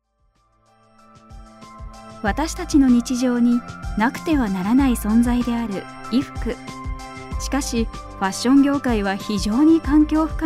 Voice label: VPナレーション 低め 誠実; SDGs、環境

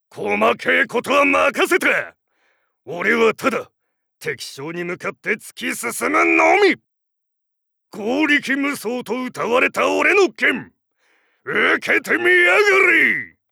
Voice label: セリフ 脳筋

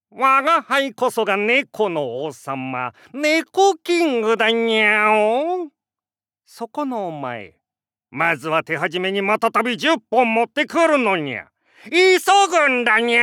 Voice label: セリフ マスコット

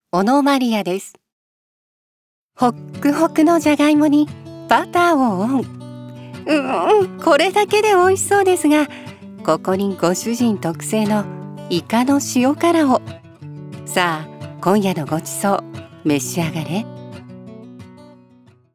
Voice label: 優しく、語りかけ; 「ほっくほくじゃがいも」

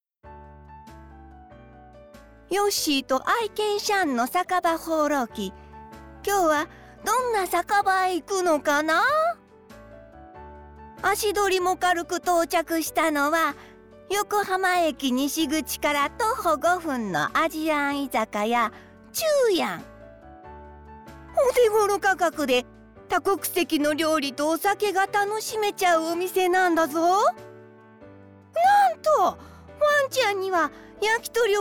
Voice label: バラエティ

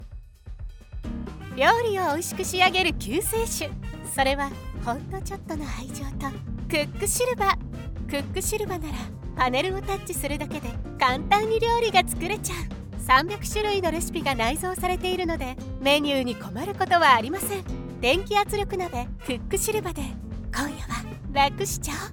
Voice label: 電気圧力鍋CM：明るさ-ハツラツ

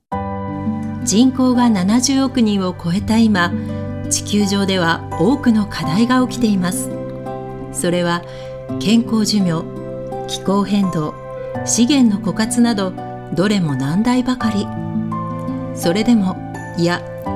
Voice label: VP クール; 「サスティナブル｣

